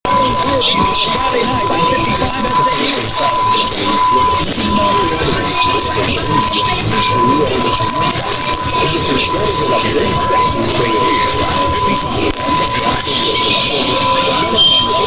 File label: 550 singing jingle poor, mw dxing; wsau